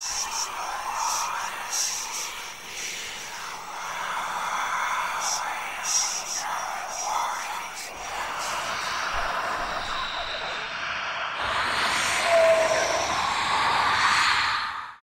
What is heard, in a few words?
ghost unintelligible whispers